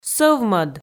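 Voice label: "сӧвмӧд", Komi, female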